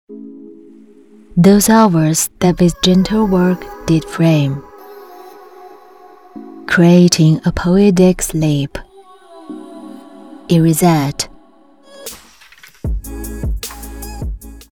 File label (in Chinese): 英文广告; 德国